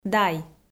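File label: "дай", Komi, female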